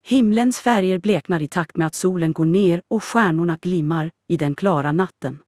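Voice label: Swedish; iOS 26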